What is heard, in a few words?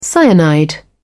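"cyanide", British English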